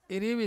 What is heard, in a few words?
"irivi", Ansus